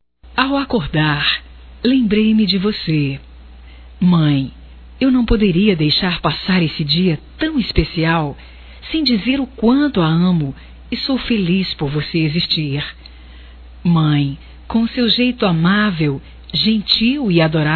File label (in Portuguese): filha envia, telemensagens, Dia das Mães; Telemensagens 2017